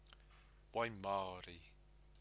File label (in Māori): "wai maori", Māori